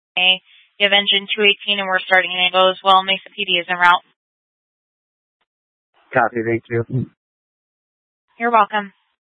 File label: Fire Departments Mesa Simulcast; TOPAZ